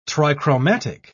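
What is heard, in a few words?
"trichromatic", British English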